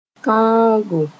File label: "tõogo", Mooré